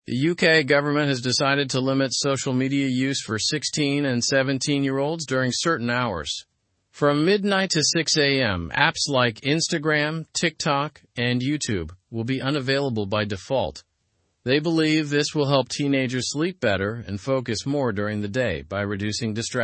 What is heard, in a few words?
english through news lower intermediate normal; uk plans social media ban for teens